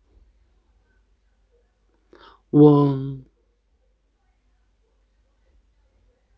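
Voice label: "weng1", Mandarin Chinese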